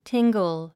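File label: "tingle", English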